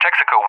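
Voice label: "Texaco", English, USA; CallSign; DCS; Allied Flight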